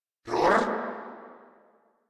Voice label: Surprised, Grineer Male